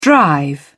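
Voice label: "drive", British English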